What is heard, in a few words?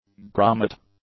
"grommet", English; nouns